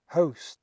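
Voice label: "h2eus", Proto-Indo-European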